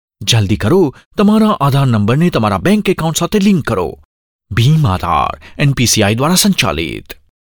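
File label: Gujarati, male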